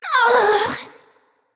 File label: Female Naga Death